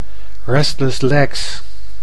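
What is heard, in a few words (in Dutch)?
"restless legs", Dutch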